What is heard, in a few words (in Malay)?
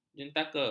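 "jentaka", Malay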